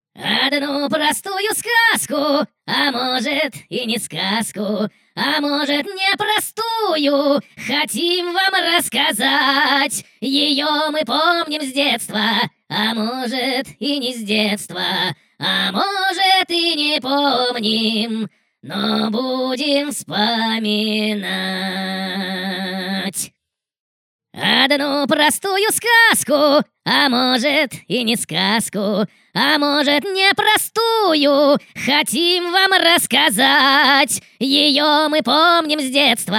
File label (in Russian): rasskazchik; plastilinovaya vorona